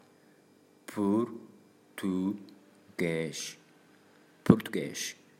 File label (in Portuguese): portugues